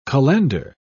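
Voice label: "cullender", British English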